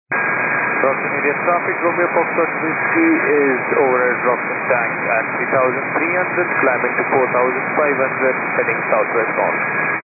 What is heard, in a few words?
122.925 MHz; scanner radio